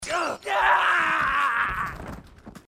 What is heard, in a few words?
marine, aussie; h2dialogue